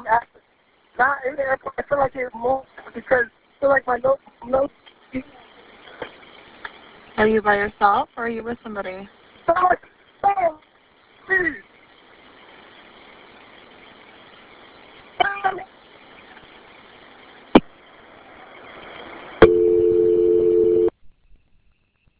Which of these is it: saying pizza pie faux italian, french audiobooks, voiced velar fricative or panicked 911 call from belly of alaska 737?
panicked 911 call from belly of alaska 737